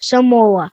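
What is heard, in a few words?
"samoan islands", Lithuanian; gcompris; geography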